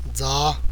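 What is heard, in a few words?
"dza", Tibetan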